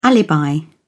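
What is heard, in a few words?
"alibi", British English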